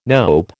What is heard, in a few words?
"nope", American